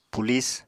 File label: "pulis", Apatani